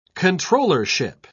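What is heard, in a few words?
"controllership", British English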